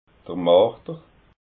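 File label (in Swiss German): "marder", Alsatian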